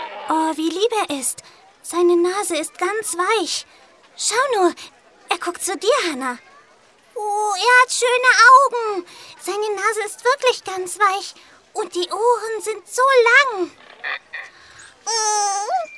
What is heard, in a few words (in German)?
Kinder